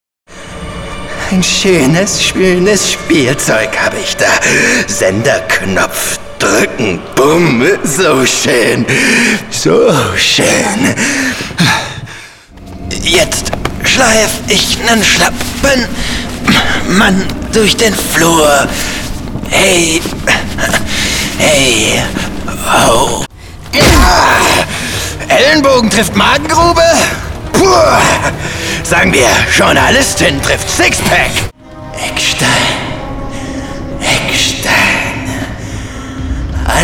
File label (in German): DEUTSCH MALE Hoerspiel; Wolfy Office; Die Pruefung; LOFT VOICES